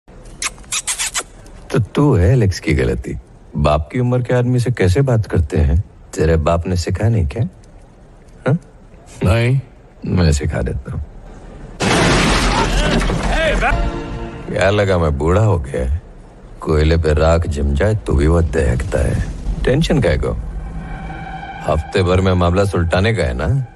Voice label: Attitude Dialogue Ringtone